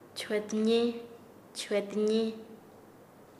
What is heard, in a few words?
Nasa Yuwe; suelto; paez